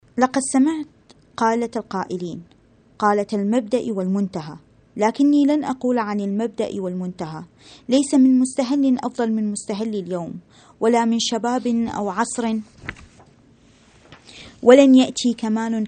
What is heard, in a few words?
arabic